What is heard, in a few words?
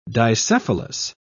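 "dicephalous", British English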